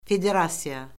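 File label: "federasiya", Azerbaijani; Orfoqrafiya Lüğəti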